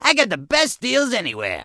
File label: Goblin Male Gruff NPC Vendor